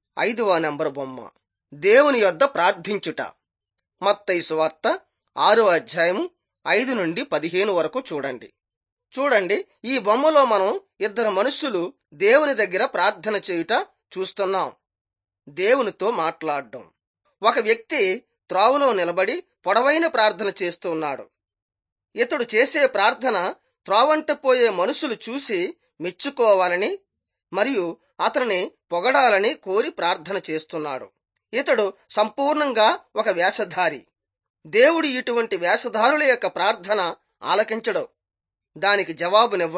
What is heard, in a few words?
telugu bangladesh; jesus teacher and healer